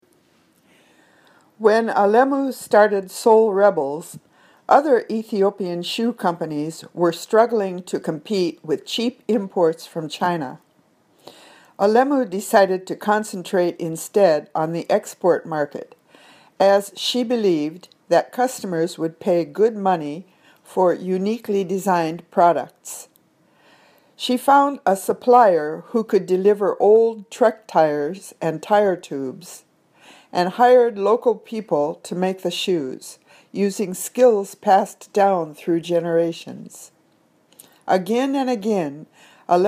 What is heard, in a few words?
Reading Drill